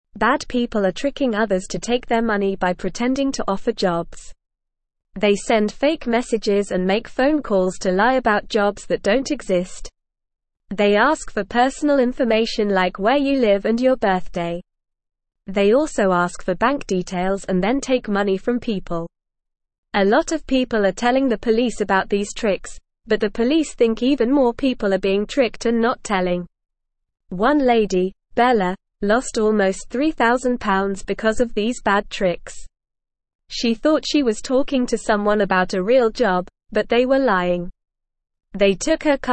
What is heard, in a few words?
Beginner NORMAL Reading, English